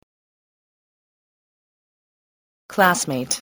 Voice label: "classmate", voice